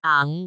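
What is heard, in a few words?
"aang2", Cantonese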